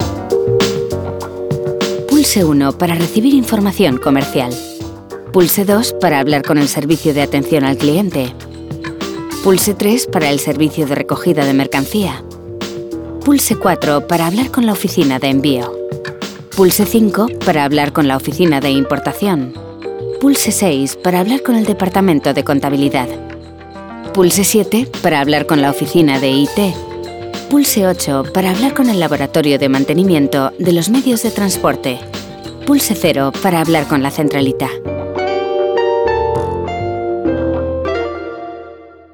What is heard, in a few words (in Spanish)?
spanish IVR